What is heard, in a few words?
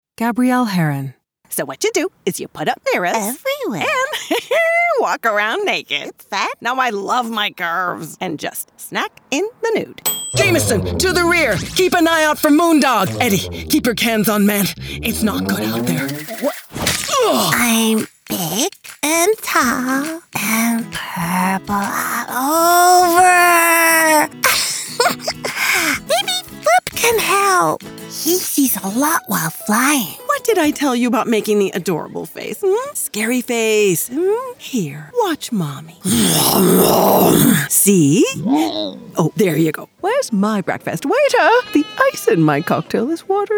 Animation Reel